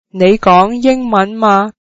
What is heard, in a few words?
do you speak english, cantonese